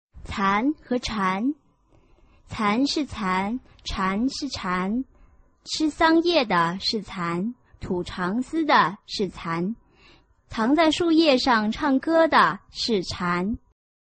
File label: chinese tongue twister